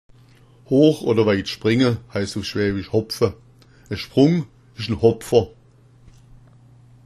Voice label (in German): "hopfa", schwaebisch